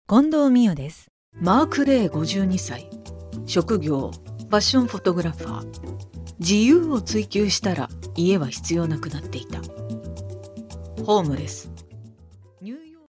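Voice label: female tv program, English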